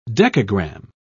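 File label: "decagram", British English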